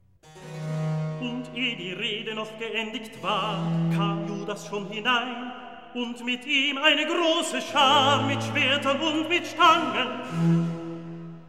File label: Recitativo evangelist; Handel Masterworks